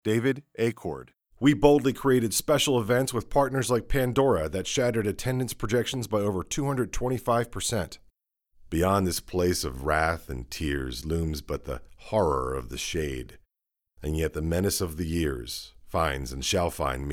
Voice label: industrial narrative; voice; SAG-AFTRA member